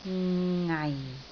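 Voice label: "ngai4", Cantonese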